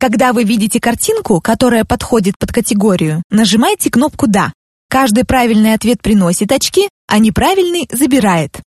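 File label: Russian, General; GoLocalise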